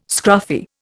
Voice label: "scruffy", Indian